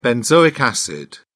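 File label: "benzoic acid", English, British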